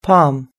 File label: "palm", us-english